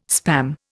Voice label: "Spam", Indian